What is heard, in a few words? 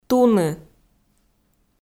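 "туны", Komi, female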